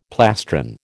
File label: "plastron", American